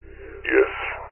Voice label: horror ghost evp spirit box eerie male says yes glitchy recorded lo fi processed; zapsplat